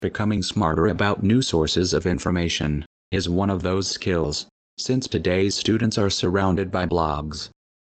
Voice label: US English; the sounds of slaw